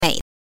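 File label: "mei", Mandarin Chinese pinyin, neutral tone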